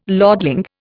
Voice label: "lordling", Indian

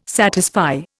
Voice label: "satisfy", Indian

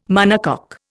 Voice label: "monocoque", Indian

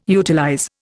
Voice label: "utilize", Indian

